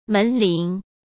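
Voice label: "门铃" Mandarin Chinese; chinese-flashcards; hsk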